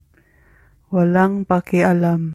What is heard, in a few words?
Cebuano